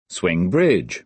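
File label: "swing bridge", American English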